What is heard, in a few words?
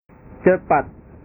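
"cepat", Indonesian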